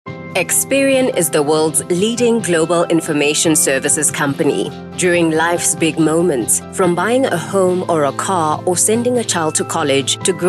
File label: SA Website VO; voice overs